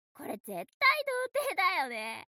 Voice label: mob 女子 台詞

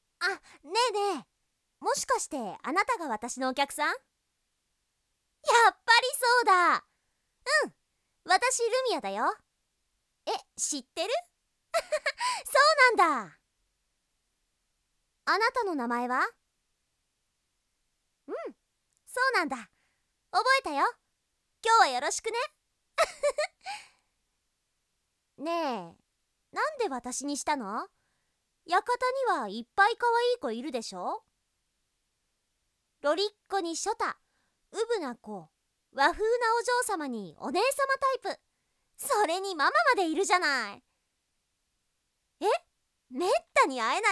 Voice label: ボイスのみ; 1章

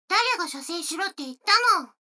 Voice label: 女の子台詞

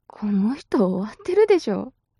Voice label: mob 女子 台詞

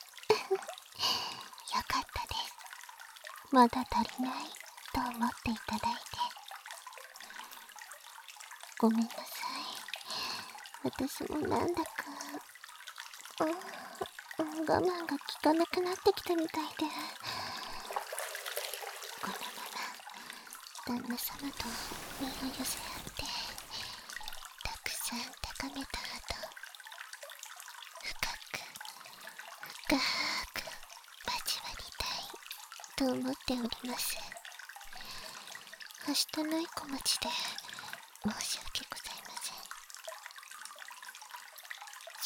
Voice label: 抱きつき囁き焦らし（囁き重視編集 効果音あり大きめ）, ASMR

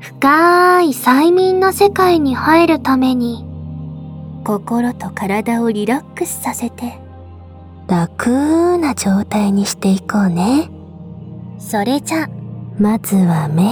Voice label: 誘導 BGM あり; マルチ囁き耳舐め連続絶頂催眠♪

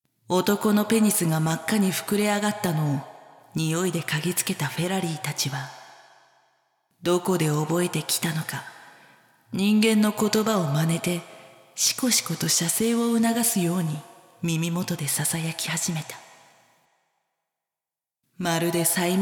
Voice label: (SEなし) ナレーション; 淫妖精の森

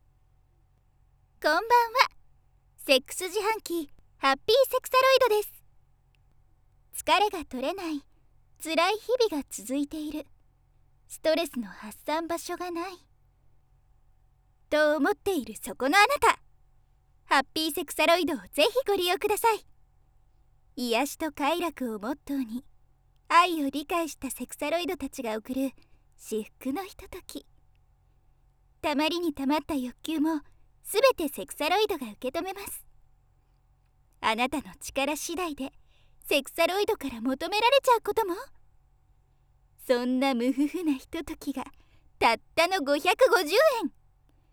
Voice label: 挿入ナレーション「SEX自販機音声広告」

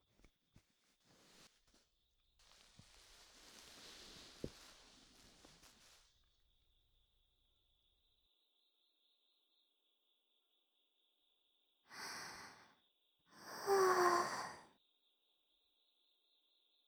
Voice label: 添い寝

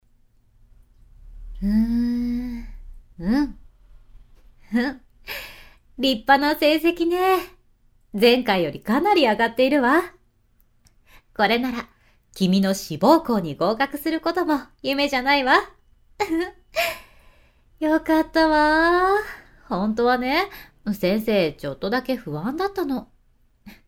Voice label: 女性家庭教師ボイスのみ

